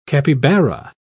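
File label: "capibara", British English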